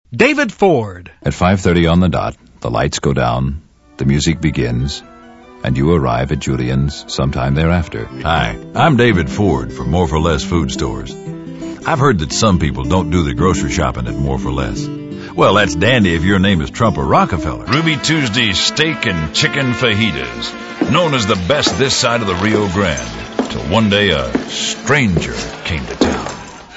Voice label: male; Spotland Productions